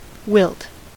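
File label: "wilt", American English; pronunciations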